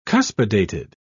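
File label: "cuspidated", British English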